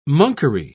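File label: "monkery", English, British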